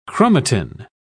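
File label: "chromatin", British English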